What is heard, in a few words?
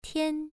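"tian1", Chinese pronunciation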